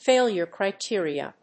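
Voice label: "failure criteria", English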